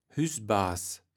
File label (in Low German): "Hüßbaas", kaevels platt